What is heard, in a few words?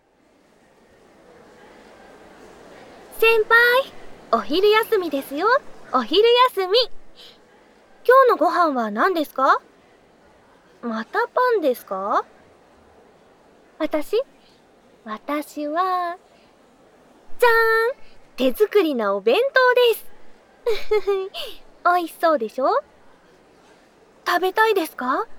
ASMR; センパイ、お昼休みですよ♪